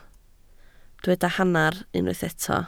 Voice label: "hanner", Welsh